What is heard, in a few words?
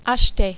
"acheter", French